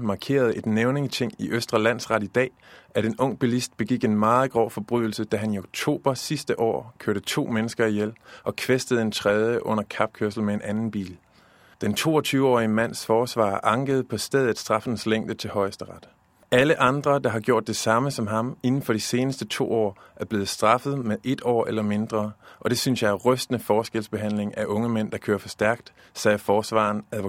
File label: Danish